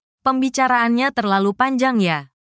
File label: indonesian phrases